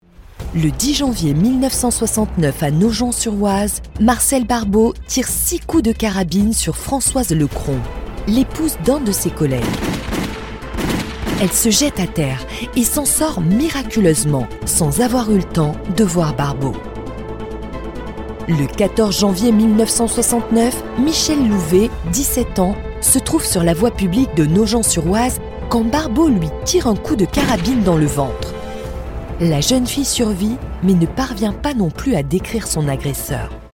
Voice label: Extrait Podcast, voix feminine; le tueur de l ombre

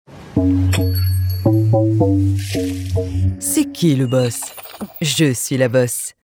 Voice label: Promo Web, voix feminine; SAGE

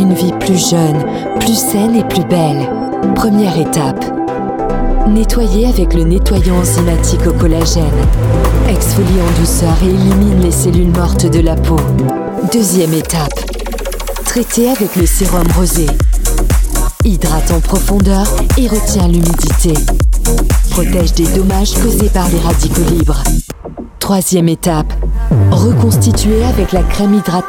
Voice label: promo web skincare, voix off feminine; BELLA GRACE

